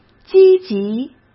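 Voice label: "积极", Mandarin Chinese; chinese-flashcards; hsk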